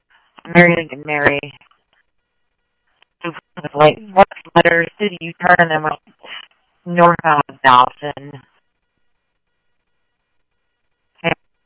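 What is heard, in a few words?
police radio scanner traffic, Mesa Simulcast; MESA PD 1 Fiesta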